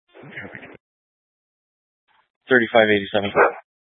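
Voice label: Police Dispatch, Scanner audio recording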